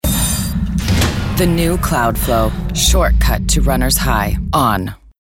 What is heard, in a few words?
female american english sport comercial